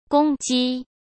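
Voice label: "公鸡", Mandarin Chinese; chinese-flashcards; hsk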